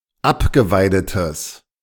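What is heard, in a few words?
"abgeweidetes", German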